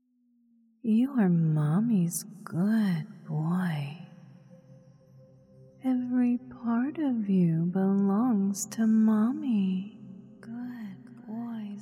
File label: ASMR Conditioning; Good Boy For Mommy